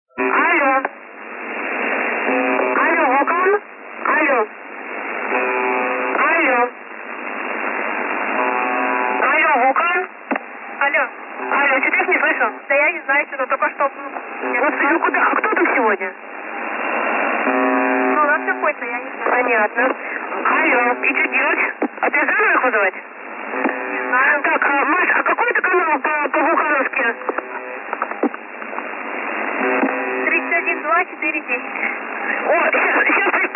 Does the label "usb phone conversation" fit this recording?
Yes